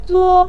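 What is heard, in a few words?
"zuo" neutral tone, Mandarin Chinese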